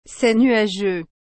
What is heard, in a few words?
"C'est nuageux.", French